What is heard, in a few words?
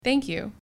Thank you English